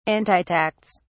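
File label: "antitax", British English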